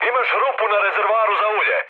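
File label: Serbian damaged oil mood high; speech; War Thunder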